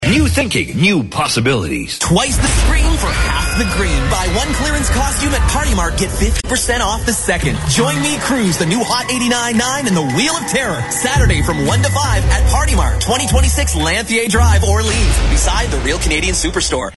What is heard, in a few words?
halloween commercial; party mart; wheel of terror